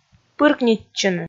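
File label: "пыркнитчыны", Komi, female; kpv audio dict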